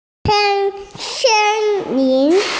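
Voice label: "forest", Mandarin Chinese, female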